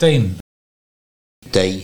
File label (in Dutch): "Tee", Nieuw lekkerlands dialect; teen, tenen; tee, teeje